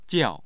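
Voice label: "jiao", Mandarin Chinese, tone 4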